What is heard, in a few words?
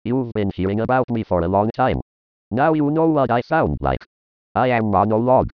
monolog